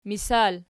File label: "misal", Archi